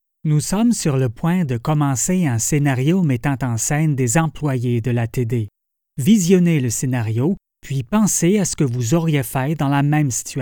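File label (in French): français canadien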